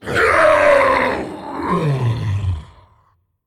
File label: Male Demon Death; Prince Malchezaar